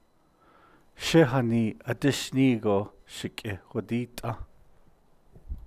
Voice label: I didn't say it but I got the blame, Navajo